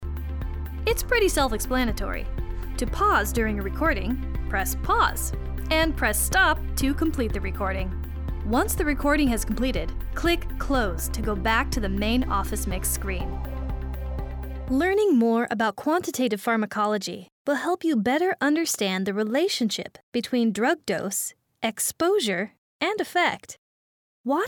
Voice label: Narration